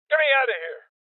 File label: Voices Heavy Losses Male Old; Rebel Cops